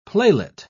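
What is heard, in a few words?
"playlet", British English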